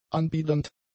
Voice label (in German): "anbiedernd", German; adjectives